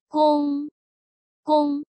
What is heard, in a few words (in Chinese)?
"公", Chinese